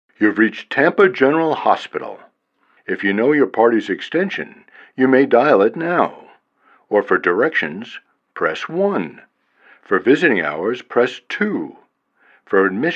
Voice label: Messages on Hold